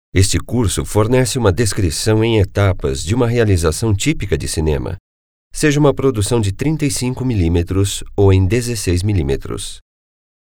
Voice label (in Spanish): locutor de brasil; locutor nativo de Brasil